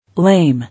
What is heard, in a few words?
"Lame", English